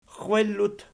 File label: "xwellut", Archi